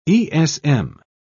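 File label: "esm", British English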